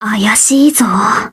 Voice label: grumpy